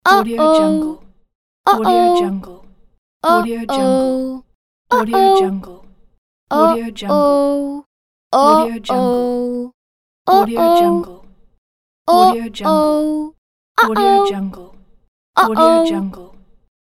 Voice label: female saying "uh oh"; medusamusiclab